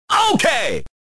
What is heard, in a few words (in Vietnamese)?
Tieng nguoi dan ong het ok